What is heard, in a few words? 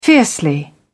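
"fiercely", English, British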